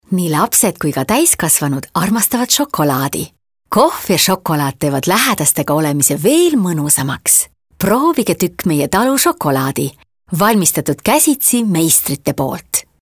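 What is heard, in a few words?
Estonian female Sweet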